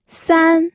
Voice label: "san1", pinyin